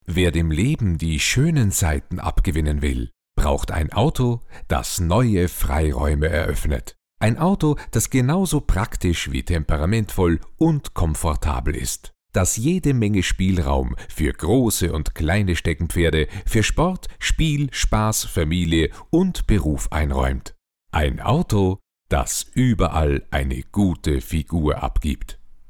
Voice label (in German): Mann OFF